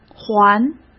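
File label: "环", Mandarin Chinese; chinese flashcards; hsk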